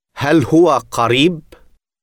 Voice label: is it near arabic